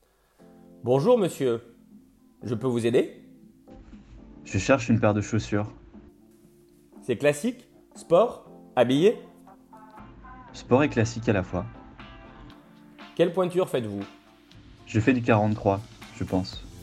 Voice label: dialogue, francais; parlez